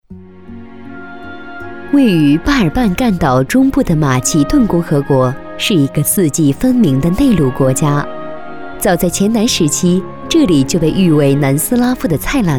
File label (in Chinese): 女 宣传片 旅游 巴尔干半岛 稳重